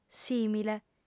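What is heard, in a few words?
"sìmile", lingua sarda, female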